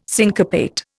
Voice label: "syncopate", Indian